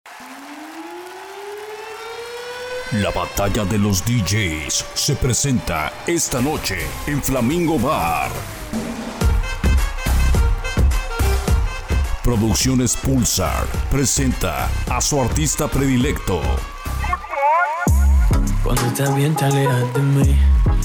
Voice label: Concert Comercials

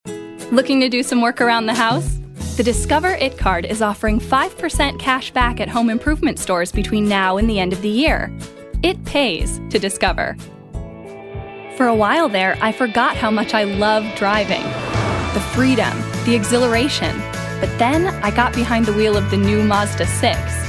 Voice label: Commercial

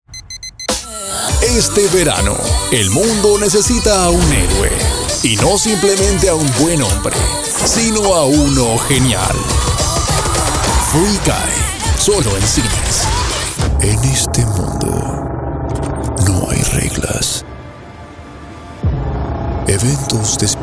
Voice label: Spanish Trailers

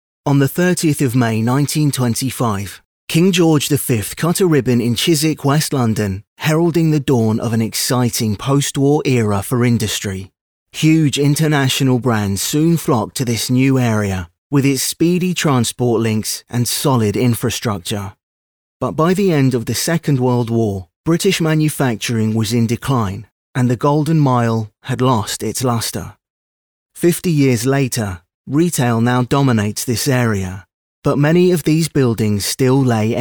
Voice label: Documentary; London Regeneration

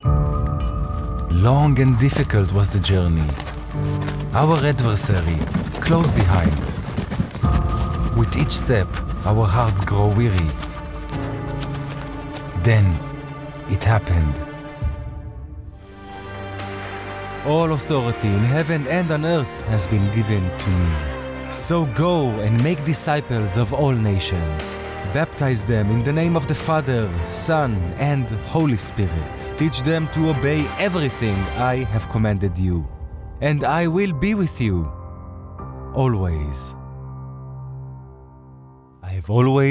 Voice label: Eng Accent spots

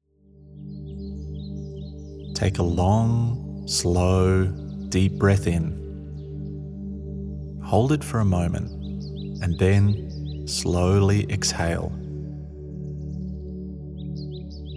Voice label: Guided Meditation

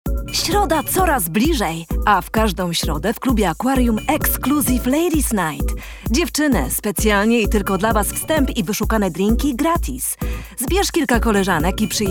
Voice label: Female Polish Radio Anouncment

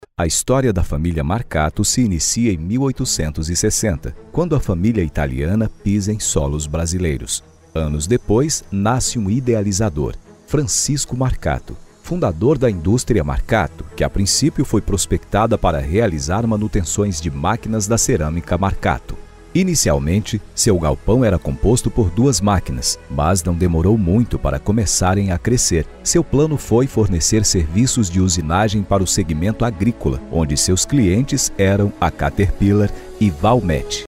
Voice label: MARCATTO NARRA O

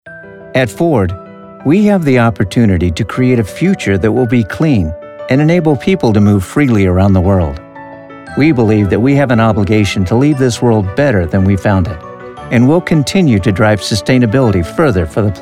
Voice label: Narration

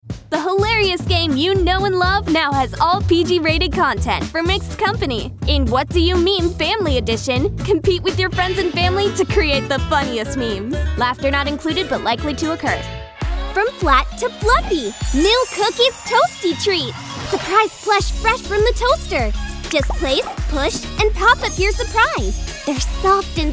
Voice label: Commercial VO

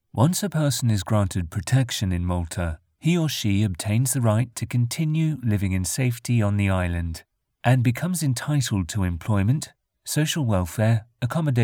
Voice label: Explainer; UNHCR; English (British)

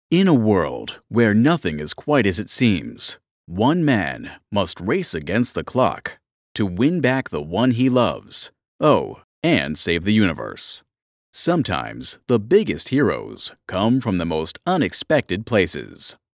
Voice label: Movie Trailer

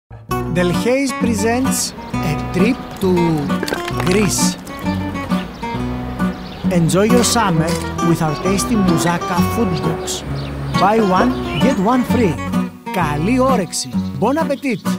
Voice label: Greek Accent Friendly Commercial; Mousaka

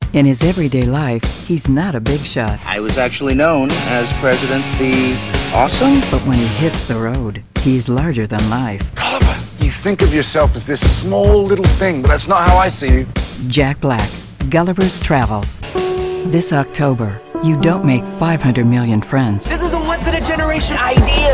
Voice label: Trailers

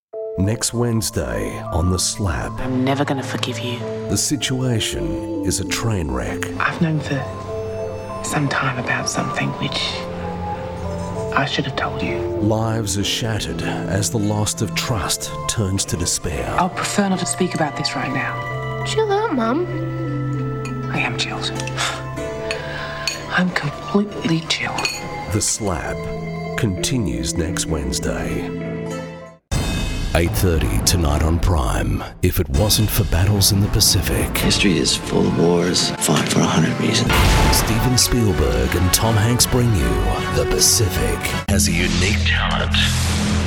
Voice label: Movie Trailers

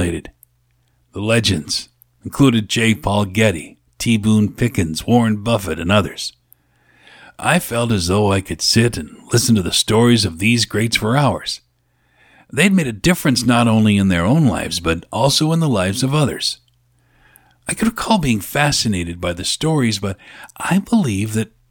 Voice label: Business Audiobook

